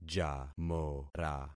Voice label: "jamora", concat syllables; Affixing experiment